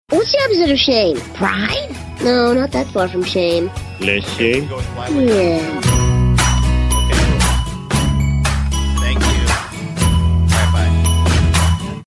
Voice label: mic was hot during intro part of phone conversation